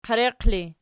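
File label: "qiereqi" ergative, Archi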